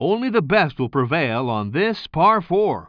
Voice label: commentator